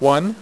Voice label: "6", poker; iPoker 2000

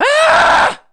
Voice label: SCI SCARED; Messiah

